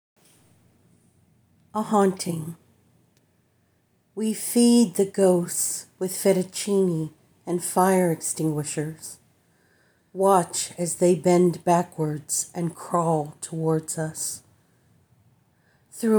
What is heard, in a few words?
Poetry Recording; Pedestal Magazine